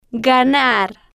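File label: "ganar", Spanish, slow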